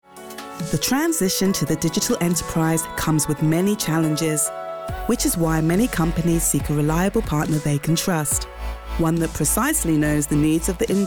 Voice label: image film; siemens